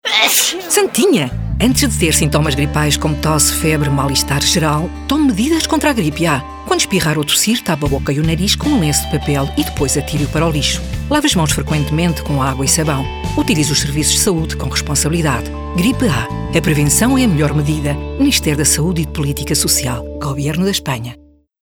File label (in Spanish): PORTUGUES